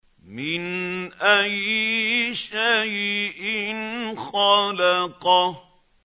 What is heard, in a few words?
quran, Hussary, tafseer; Abasa